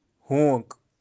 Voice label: "hoank", birds of kashmir